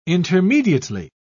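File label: "intermediately", British English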